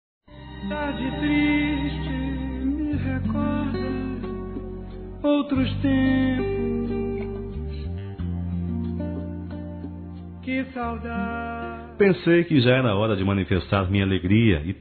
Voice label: MENSAGEM P SOGRO M, Telemensagens; masc; Telemensagens 2017

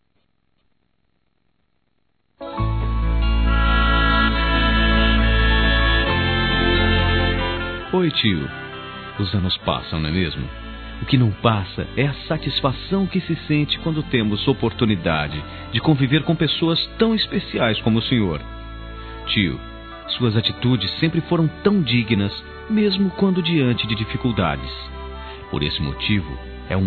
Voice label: Aniversário Tio Masculino; Aniversários; Aniv Familiar

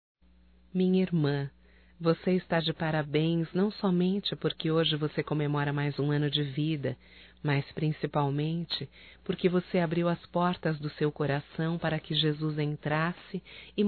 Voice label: Aniversário Evangélico Feminino, Telemensagens; Telemensagens 2017; Aniversarios

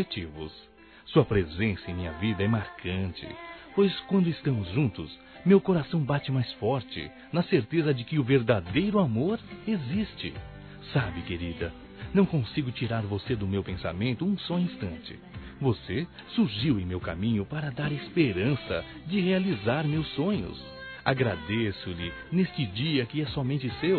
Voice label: ANIV. ROMANTICO M

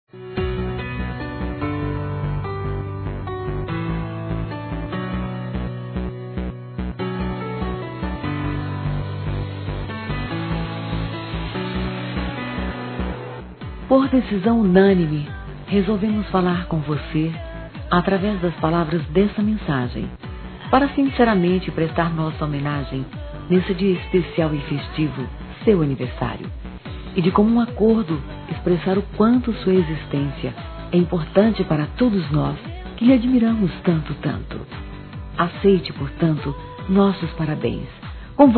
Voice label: NEUTRO PLURAL F; Aniversários; Aniversários diversos; fem

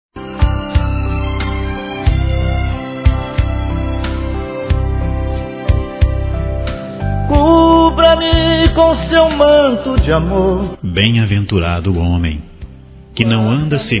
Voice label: Neutro Católico Masculino, Aniversários; Aniversários diversos

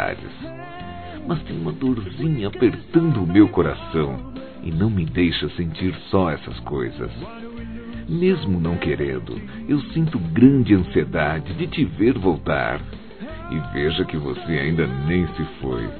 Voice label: Romantica Boa Viagem Masculino

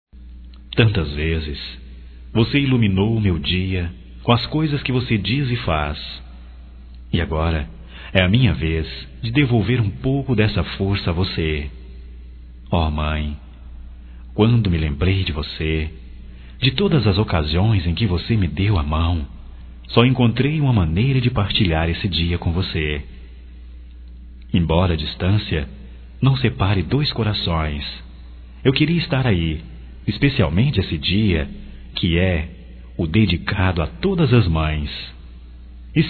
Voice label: DISTANTE (M), Dia das Maes, masc; Telemensagens 2017

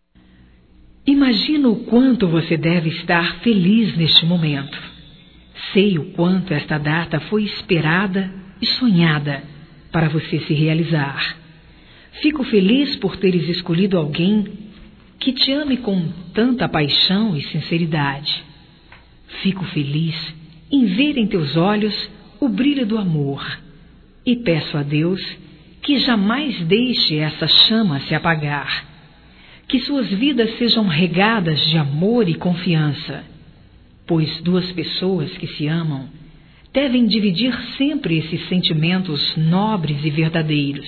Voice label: Cumprimento Pelo Casamento Feminino; Casamentos; Telemensagens 2017

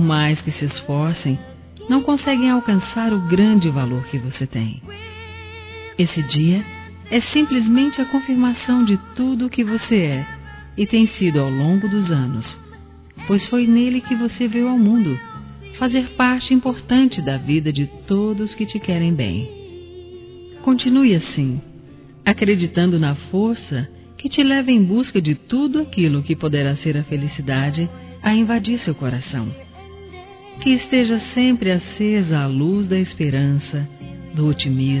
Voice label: Aniversário Sobrinho Feminino; Aniv Familiar

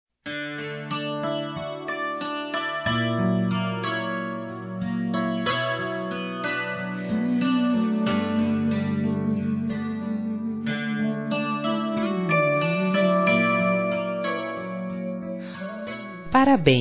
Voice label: NEUTRO (F)

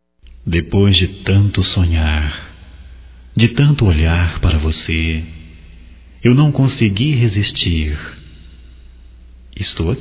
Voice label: PEDIDO DE NAMORO, Telemensagens, Românticas, masc

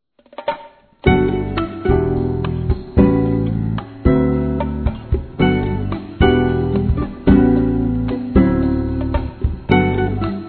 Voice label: Natal Para Neta Masculino; Telemensagens; Natal e Ano novo

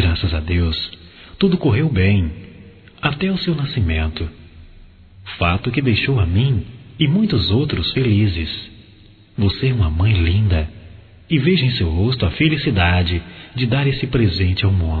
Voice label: Parabéns Pela Criança Masculino; Telemensagens 2017

